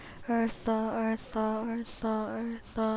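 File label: "also", spoken word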